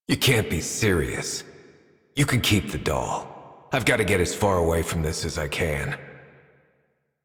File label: Adult, en, Dialog; Figurine; Warframe; Duviri Paradox Quest